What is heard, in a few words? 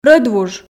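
"рӧдвуж", Komi, female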